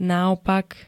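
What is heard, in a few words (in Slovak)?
"naopak", Slovak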